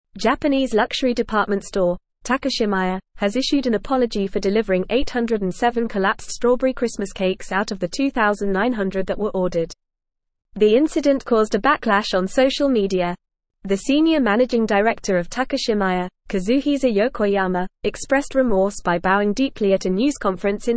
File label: English Newsroom Advanced FAST Reading; Takashimaya Apologizes for Collapsed Strawberry Christmas Cak